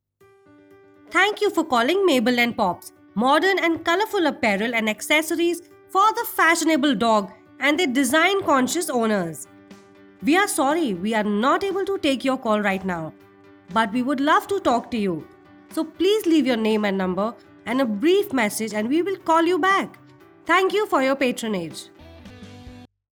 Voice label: Eng IVR